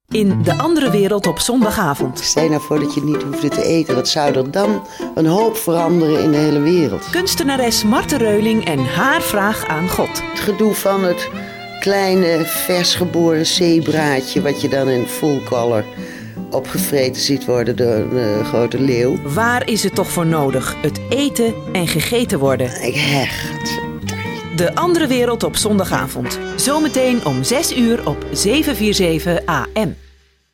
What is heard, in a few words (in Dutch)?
aankondiging